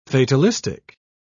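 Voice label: "fatalistic", British English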